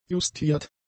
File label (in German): "justiert", German; adjectives